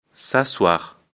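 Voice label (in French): "s'asseoir", French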